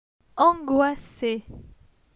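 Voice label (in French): "Angoisse", French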